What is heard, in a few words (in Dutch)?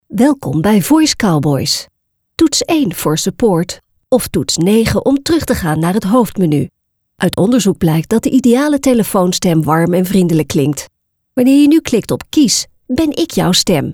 VOICEMAIL